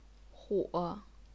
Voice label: "huo3", chinese pinyin; 夥; 钬; 伙; 火